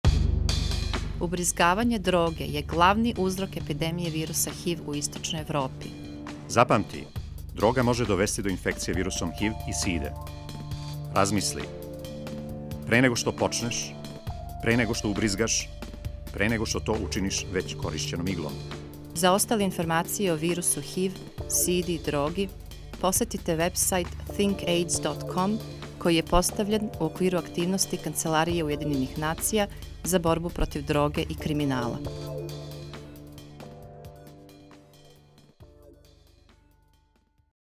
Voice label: spot serbian music

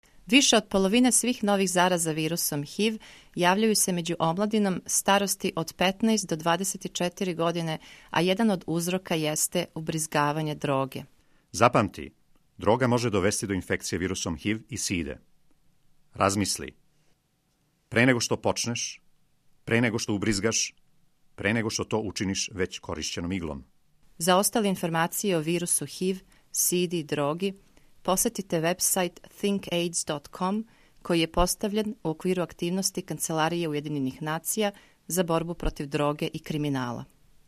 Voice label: spot serbian

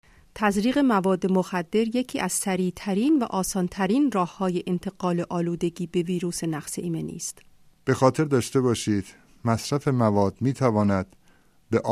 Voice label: spot farsi

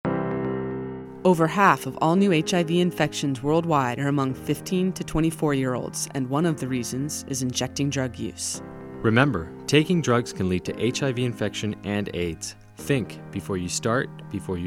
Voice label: spot usa music

